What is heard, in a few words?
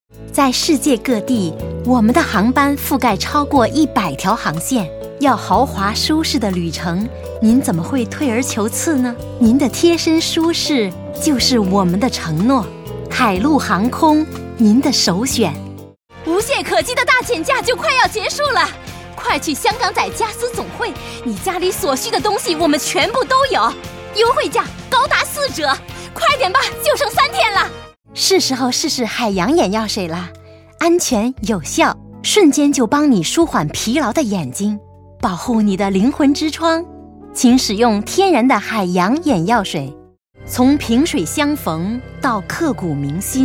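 Mandarin, TV commercial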